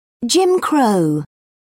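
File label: "jim crow", British English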